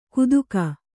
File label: "ಕುತುಕ", kannada